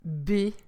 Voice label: "B", french